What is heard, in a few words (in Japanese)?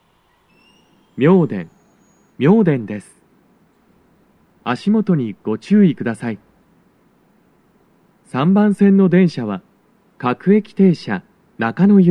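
arrival announcement, 到着放送 男声; 3番線 中野・三鷹方面; 放送は「各駅停車 中野行」です。; 収録者:こたけ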